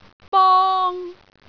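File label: "bong1", Cantonese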